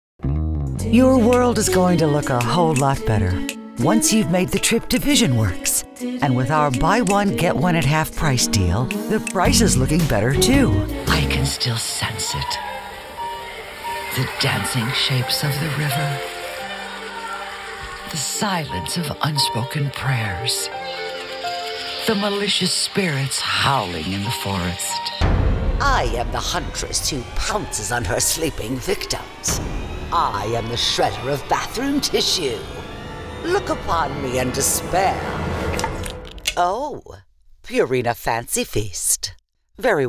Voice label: Commercial